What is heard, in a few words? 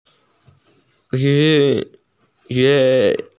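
"doctor", Mandarin; yi1sheng1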